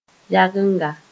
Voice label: "yagenga", Moore